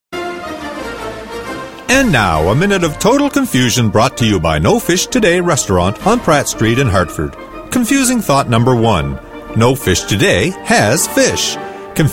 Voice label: commercial